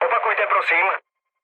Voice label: chat, speech Czech; War Thunder

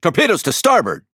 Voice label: En Speech Alarms Torpedo Right; World Of Warships

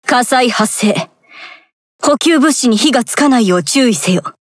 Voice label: Speech Alarms Fire Alarm; SovRussia; Azur Lane

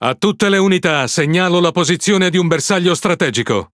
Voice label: It Speech Quick Commands Enemy Ship; World Of Warships

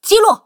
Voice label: Speech Pilots Destroy; HuaQing

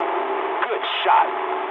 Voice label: eng player killed enemy mood low, speech eng; War Thunder

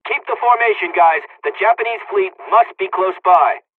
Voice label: dialog, hungarian; dialogs; midway

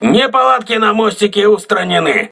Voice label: voice message bridge repaired, crew ships russian

